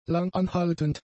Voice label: "langanhaltend", German; adjectives

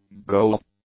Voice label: "goal", English; nouns

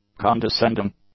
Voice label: "condescending", English; adjectives

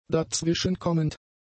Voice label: "dazwischenkommend", German; adjectives

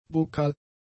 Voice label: "buccal", German; adjectives

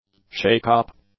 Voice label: "shakeup", English; nouns

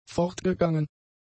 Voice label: "fortgegangen", German; adjectives

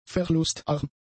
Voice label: "verlustarm", German; adjectives

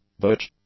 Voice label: "butch", English; adjectives

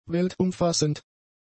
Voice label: "weltumfassend", German; adjectives

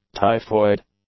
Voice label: "typhoid", English; adjectives

